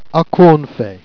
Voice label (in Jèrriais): "acouo eune fais", Jèrriais